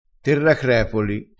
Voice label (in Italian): "terracrepoli", dialettale